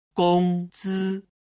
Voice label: "gong zi", Chinese